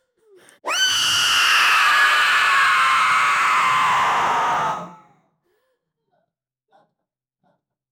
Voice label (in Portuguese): uma mulher idosa nos seus, elderly